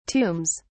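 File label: "tombs", British English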